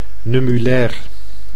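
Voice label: "nummulair", Dutch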